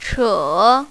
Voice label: "che3", mandarin, female

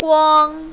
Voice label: "guang1", mandarin, female; 光; 閃; 燈

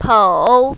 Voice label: "pou3", mandarin, female

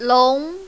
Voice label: "long2", mandarin, female

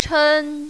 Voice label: "chen1", mandarin, female

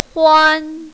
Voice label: "huan1", mandarin, female

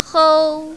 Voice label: "hou1", mandarin, female

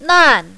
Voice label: "nan4", mandarin, female

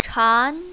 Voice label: "chan2", mandarin, female

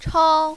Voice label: "chao1", mandarin, female

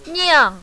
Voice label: "niang4", mandarin, female

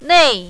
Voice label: "nei4", mandarin, female; 内

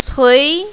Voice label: "cui2", mandarin, female

